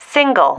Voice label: "single", female

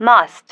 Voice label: "must", female

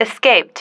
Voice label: "escaped", female

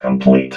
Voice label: "complete", robot vox

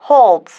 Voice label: "holds", female

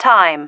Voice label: "time", female

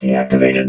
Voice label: "deactivated", robot vox

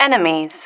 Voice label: "enemies", female

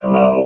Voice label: "hello", robot

